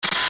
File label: cockpit radio communication